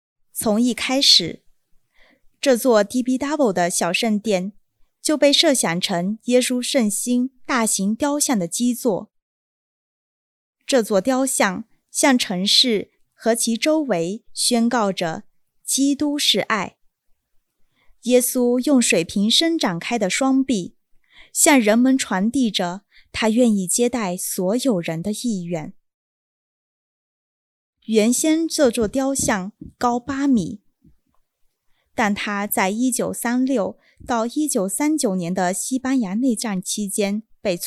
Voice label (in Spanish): Audio Chino; TIBIDABO; 中国人